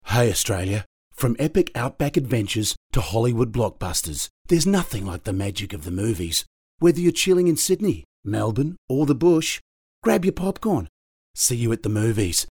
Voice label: Aussie

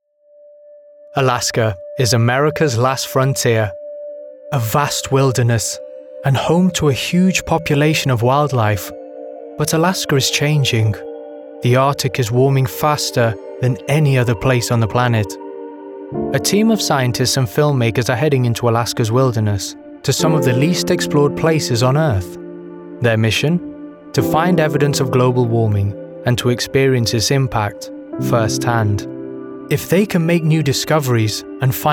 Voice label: Narrative, en-AU

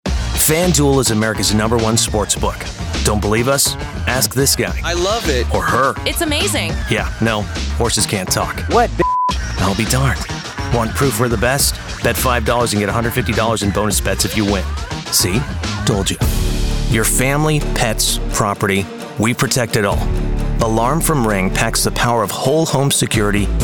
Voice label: Commercial

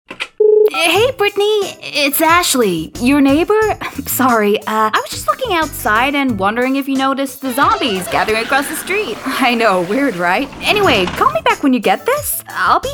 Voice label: Animation; ASHLEY

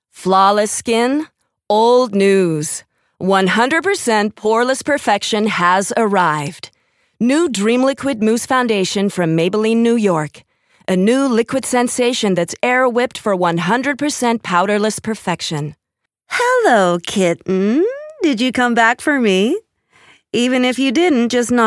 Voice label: US ACCENT

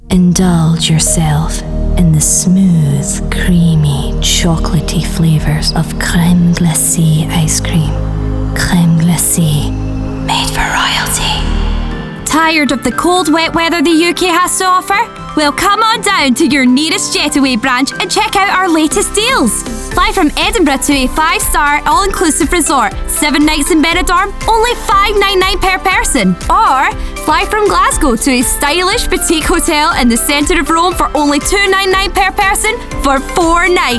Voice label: Commercial

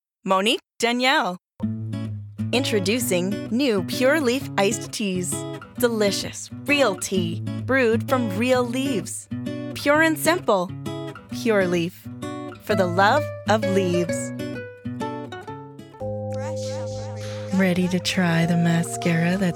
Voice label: Commercial

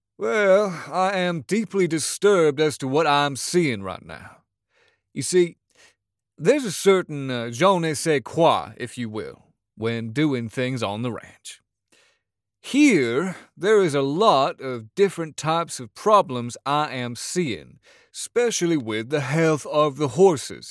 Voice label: Texan accent

